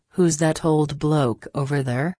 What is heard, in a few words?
frase bloke, Reino Unido; girias